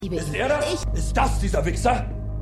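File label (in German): Wütender Ehemann; Extrapolations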